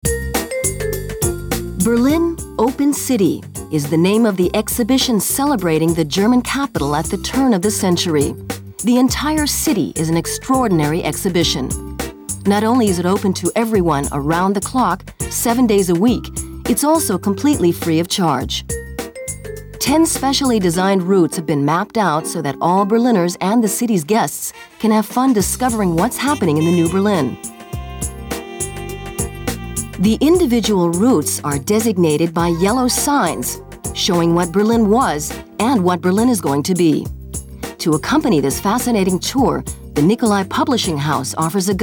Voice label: us audioguide, sprecher englisch

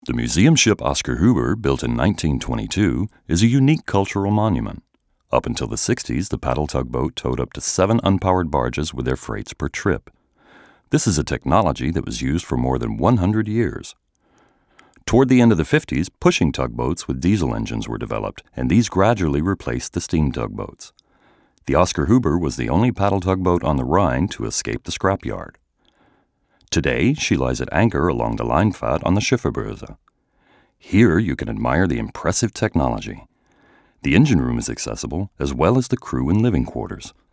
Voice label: US elearning, englisch; sprecher

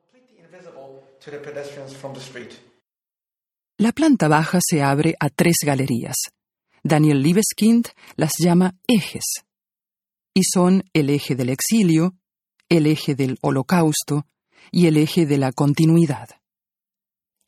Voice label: voiceover, spanisch

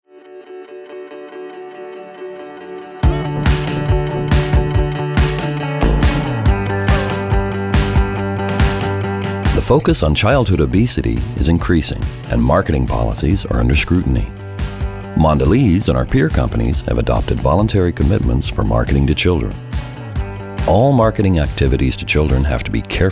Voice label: US voiceover; sprecher; englisch

